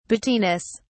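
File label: "battiness", British English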